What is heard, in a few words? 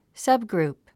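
"subgroup", English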